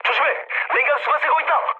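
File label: Korean damaged water mood low; speech; War Thunder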